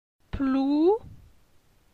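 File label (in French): "Plou", French; phonetique; la syllabe ou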